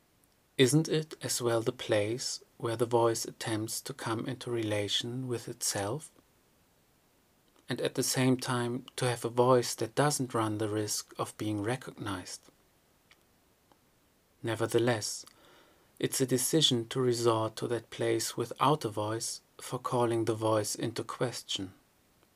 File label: Whisper